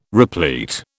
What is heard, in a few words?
"replete", British